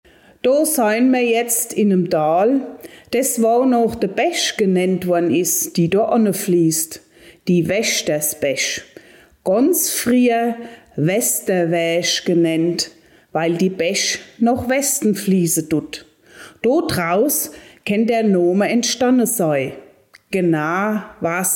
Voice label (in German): Mundart; Waechtersbach